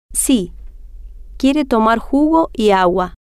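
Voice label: si Quiero Tomar Jugo Agua, Spanish, female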